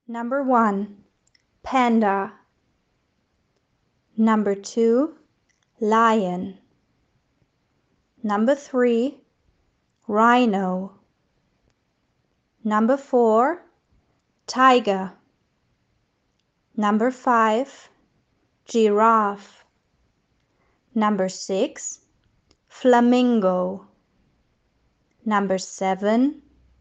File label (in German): Englisch